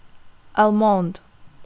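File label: "almond", Russian, female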